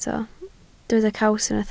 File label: "caws", Welsh